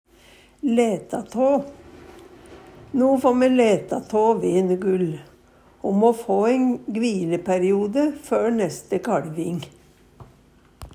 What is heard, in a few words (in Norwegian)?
laeta ta, Numedalsmål